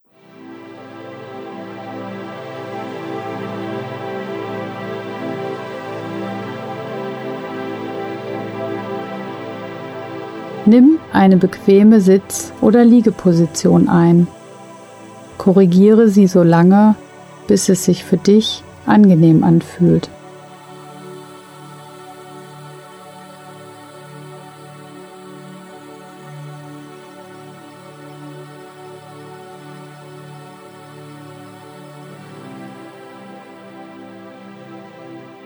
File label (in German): Herzmeditation